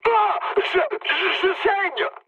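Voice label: damaged pilot, mood high, French; War Thunder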